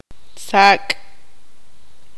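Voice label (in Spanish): "saltamontes", Tz'utujil, female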